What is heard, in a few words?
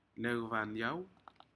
"necvamiau", Aneityum; shellfish